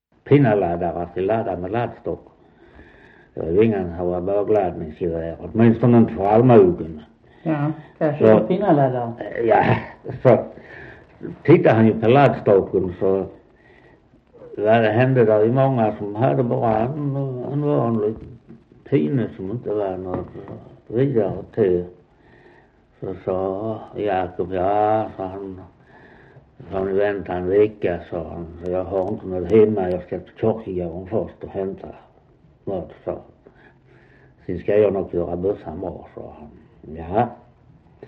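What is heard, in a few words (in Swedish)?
Västra Torup Skåne, dialekter; Folkminnen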